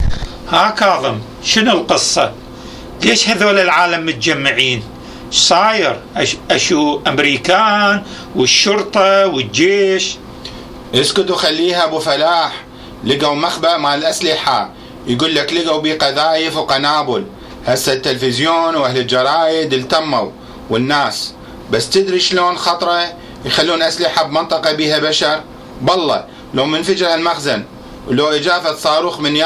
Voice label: Dialogue, Iraqi Dialect; weapons hide-out

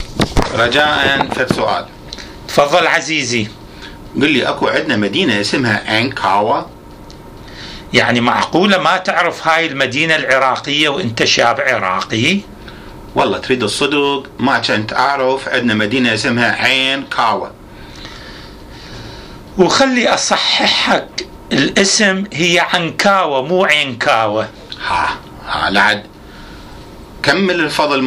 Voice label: Dialogue about Ankawa City, Iraqi Dialect

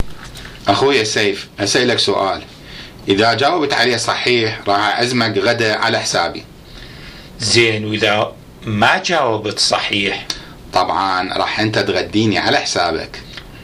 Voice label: Dialogue, Iraqi Dialect

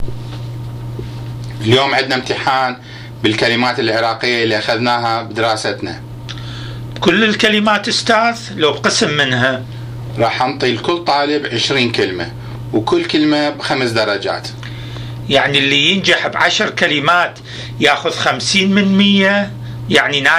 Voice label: Dialogue about word meanings, Iraqi Dialect

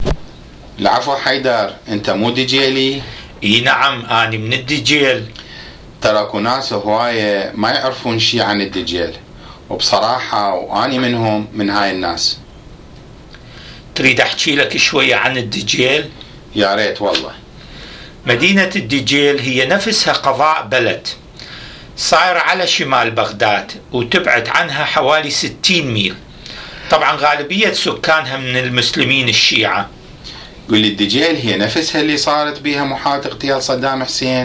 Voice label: Dialogue about al-Dujail City, Iraqi Dialect

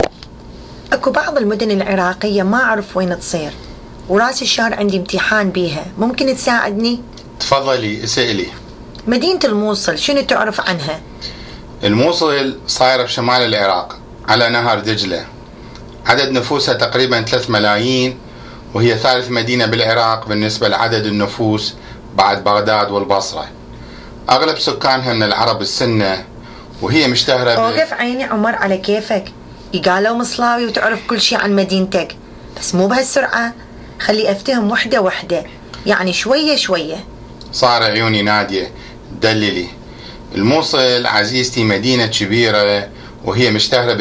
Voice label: Dialogue about Al-Mousal City, Iraqi Dialect

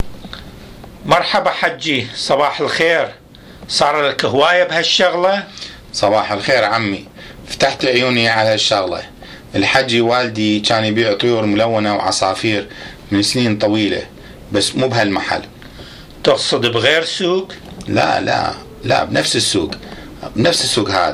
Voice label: Dialogue in birds market, Iraqi Dialect

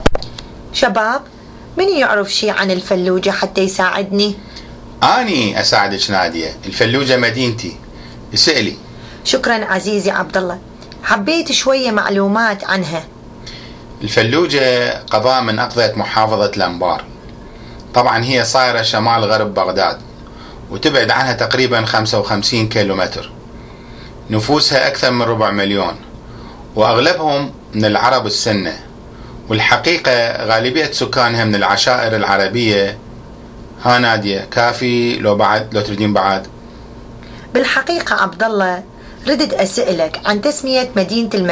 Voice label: Dialogue about Falluja City, Iraqi Dialect

